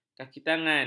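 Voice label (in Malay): "kakitangan", Malay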